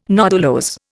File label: "nodulose", Indian